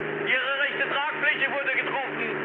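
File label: German right wing mood high, speech; War Thunder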